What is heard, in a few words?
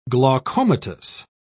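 "glaucomatous", British English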